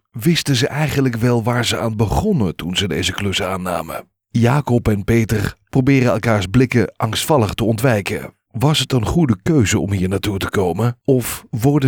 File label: Audiobook Dutch native voicetalent deep - warm